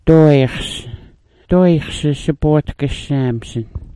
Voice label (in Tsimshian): "dooyxs", Sm'algyax